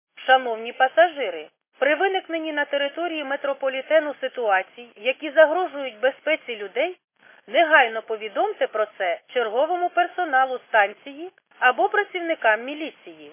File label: khs st female, subway station